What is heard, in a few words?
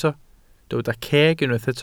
"ceg", Welsh